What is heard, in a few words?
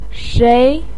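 "shei", Chinese pinyin, second tone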